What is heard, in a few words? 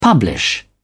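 "publish", British English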